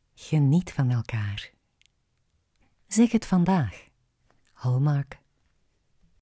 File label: vlaams; hallmark